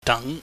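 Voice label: "dang", Cantonese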